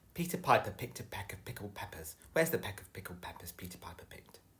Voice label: english fast; phon lab